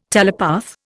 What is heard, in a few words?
"telepath", Indian